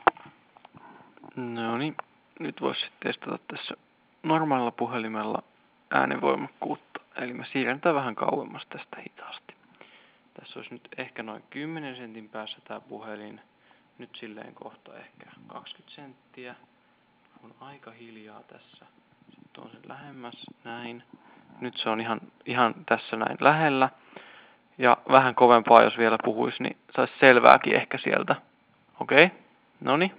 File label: landline quiet loud; openmoko